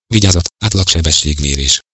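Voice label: Hungarian warning, GPS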